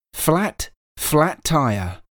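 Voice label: "flat flat tire", English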